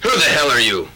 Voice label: male; expletives

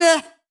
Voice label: "eh", voice

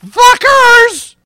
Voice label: fuckers male; Voice; expletives

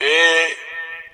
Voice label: "ay", voice

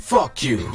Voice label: "fuck you", male; expletives

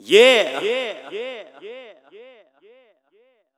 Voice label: "yeah" echo

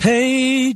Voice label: "hey", Voice

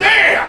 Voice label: "damn", expletives; god damn

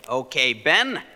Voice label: "okay", expletives

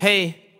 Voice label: "hey", Voice

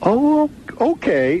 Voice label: "oh okay", expletives

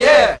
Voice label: "yeah", group of men

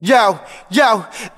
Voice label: "yo yo", Voice; ooh ahh

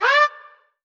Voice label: "huh" clown

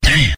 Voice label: "damn", expletives

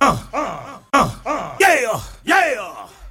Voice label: "uh uh yeah yeah", voice; ooh ahh

